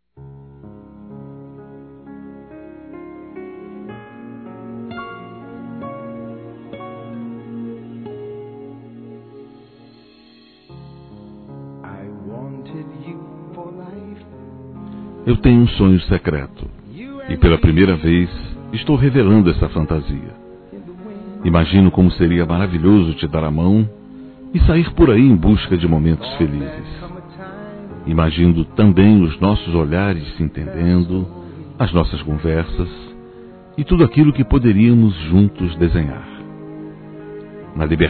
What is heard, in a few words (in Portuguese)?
Conquista Romantica Suave Masculino; Telemensagens 2017